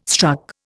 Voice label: "struck", Indian